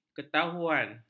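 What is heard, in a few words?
"ketahuan", Malay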